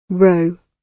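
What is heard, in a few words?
"row", British English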